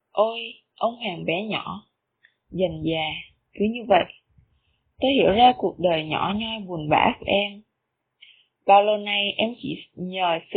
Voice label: Vietnamesisch Ho Chi Minh Stadt

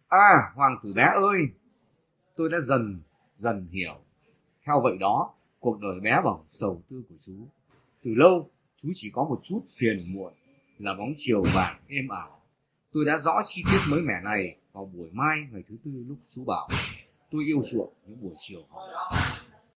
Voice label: Vietnamesisch Bac Ninh

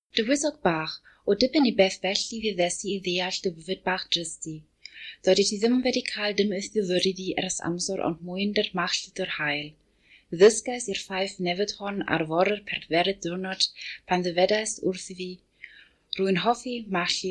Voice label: Walisisch Aberystwyth

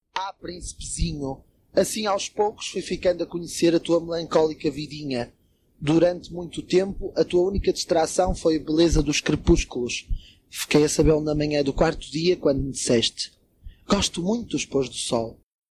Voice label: Portugiesisch Lissabon

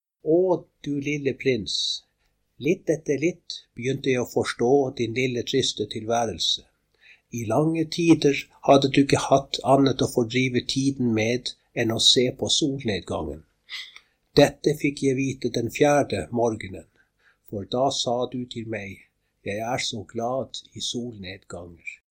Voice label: Norwegisch Tana

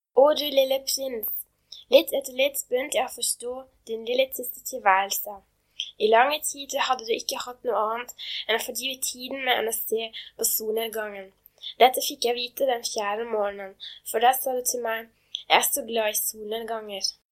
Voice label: Norwegisch Oslo

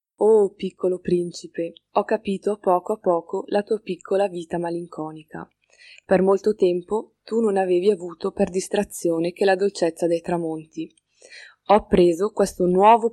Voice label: Italienisch Bergamo

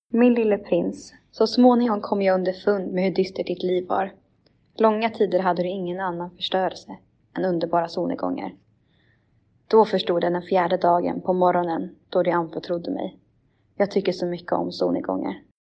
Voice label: Schwedisch Sundbyberg

